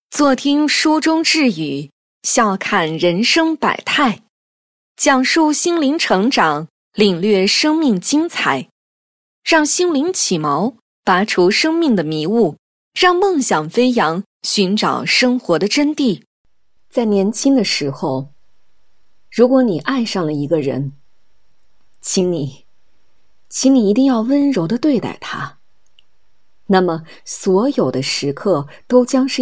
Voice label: Mandarin

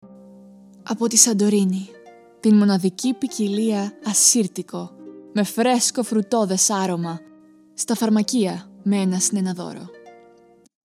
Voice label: Greek

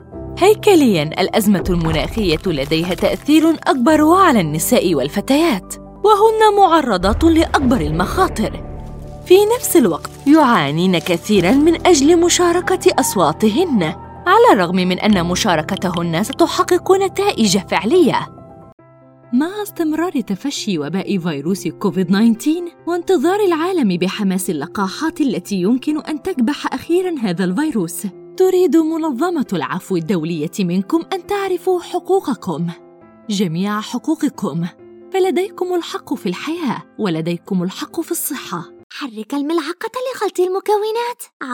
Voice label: Arabic

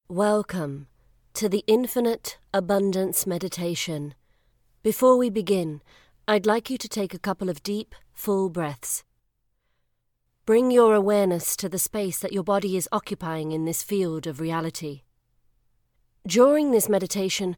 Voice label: audiobook sample

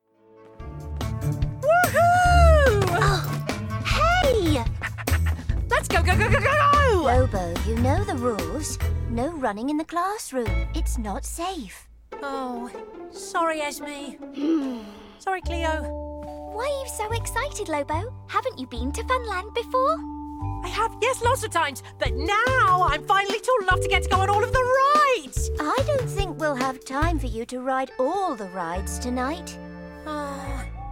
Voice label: Animation Reel

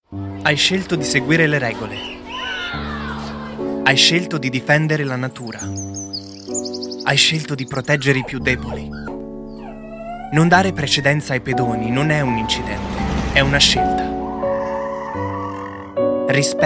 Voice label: Italian

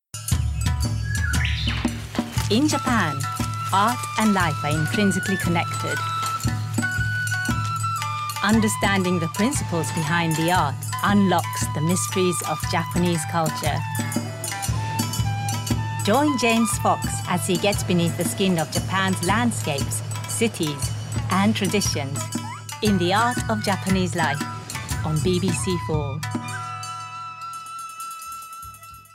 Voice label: English

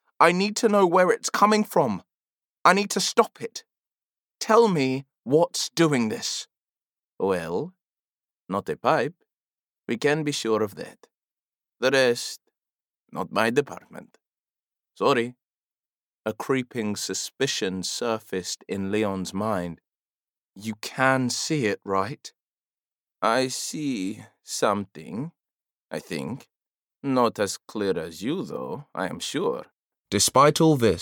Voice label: Audiobook

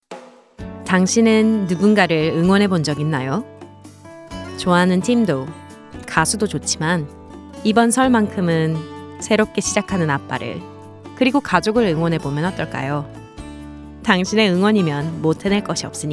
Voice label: COMMERCIAL VOICE KOREAN

